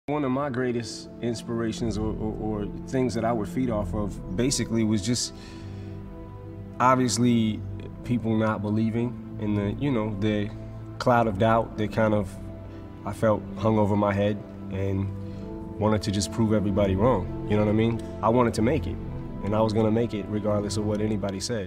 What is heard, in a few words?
Inspirational Speech; Eminem Talks About His Greatest Inspiration